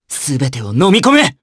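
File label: voice Skill, voices heroes, Japanese; Theo; kingsraid audio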